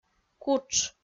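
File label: "кутш", Komi, female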